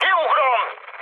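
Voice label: Hungarian bailout mood high, speech; War Thunder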